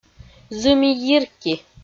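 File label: "зыми-йирки", Komi-Zyrian, female; audio dict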